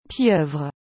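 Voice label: "pieuvre", French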